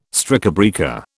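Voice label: "strikebreaker", British English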